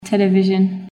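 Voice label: "television", English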